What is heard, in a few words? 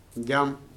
"cry", Korku